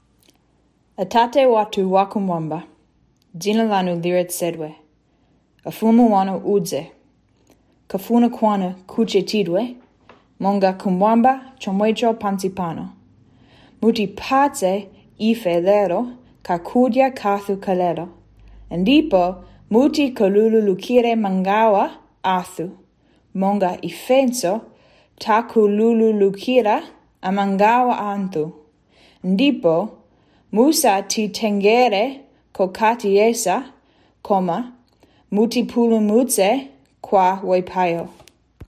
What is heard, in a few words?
chichewa